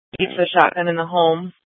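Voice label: Police Department North Dispatch, scanner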